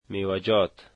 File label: fruits, dari